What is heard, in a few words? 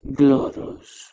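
"ghlh3ros", Proto-Indo-European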